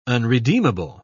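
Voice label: "unredeemable", British English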